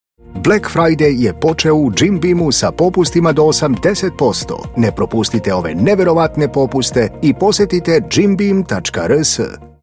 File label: tv spot audio voice over serbia